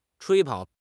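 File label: "吹捧", Chinese; HSK6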